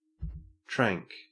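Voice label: "tranq", Australian English; pronunciations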